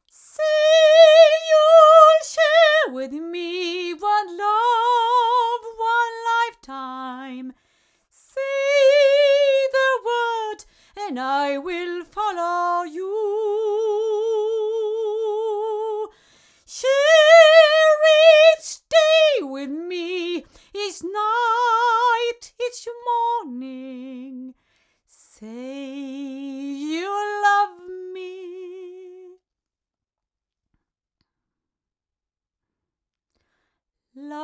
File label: Neumann TLM170 8 female